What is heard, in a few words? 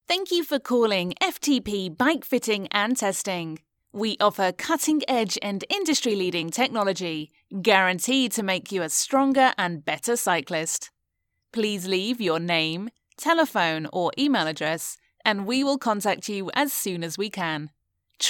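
British IVR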